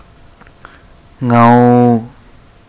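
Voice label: ngau, Malayalam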